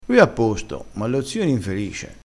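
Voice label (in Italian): "infelice", vocabolario dialettale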